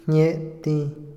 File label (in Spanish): leer, Otomí, hombre; Habla; INALI